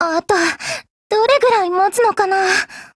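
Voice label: BREAK, Japanese; GF Alarm; M14Mod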